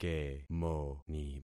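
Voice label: "kemoni", concatenated syllables; Affixing experiment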